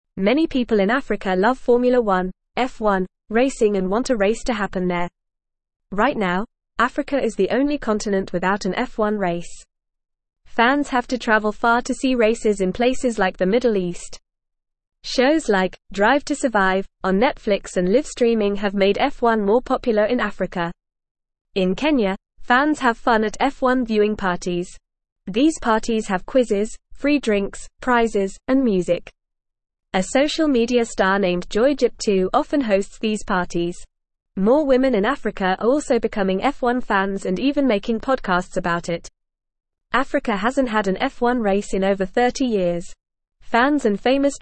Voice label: English FAST Reading; Formula 1 Racing Africa Wants a Race